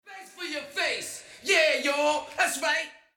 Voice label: Vocals ('yeah yo!')